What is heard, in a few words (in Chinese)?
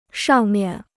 "上面", Chinese